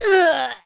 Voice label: human female die; wesnoth